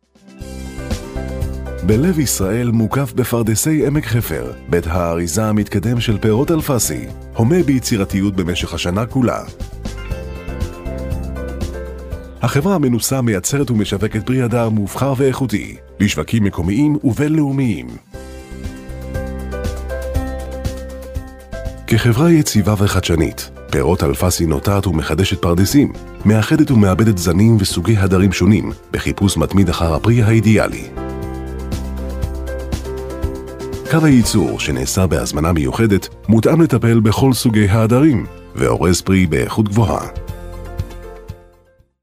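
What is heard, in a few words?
Hebrew male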